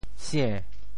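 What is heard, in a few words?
"sie~2", Teochew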